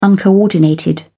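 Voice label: "uncoordinated", British English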